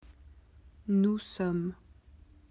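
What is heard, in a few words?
"être", French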